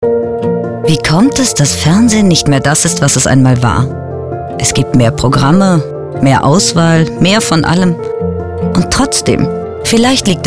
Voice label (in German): Spot innerer Monolog; LOFT VOICES